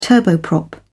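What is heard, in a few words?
"turboprop", British English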